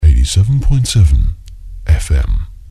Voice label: fm, male, no bed; jingles ads 1994; bailrigg fm